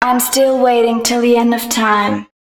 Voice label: female, spoken hooks

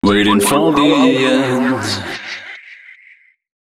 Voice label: male d# 130, short hooks, Dance Voice

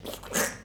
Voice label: "DUCK", SHOUTS; Best Service; Hyper Dance

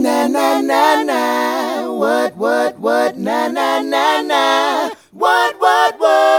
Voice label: Male Vocal; Sonic Foundry (Sony Creative Software); Mac Money

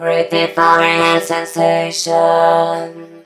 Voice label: SENSATION, Funky Vocals; USB Soundscan vol.18; AKAI; COMPUTER

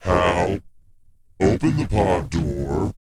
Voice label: pitch low; ILIO; Vocal Planet

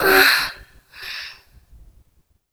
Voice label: VOICE, VOICE-FX

